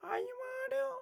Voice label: Pig Chef, Voices